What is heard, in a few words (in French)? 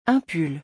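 "Un pull", French; vocabulaire; le lexique des vacances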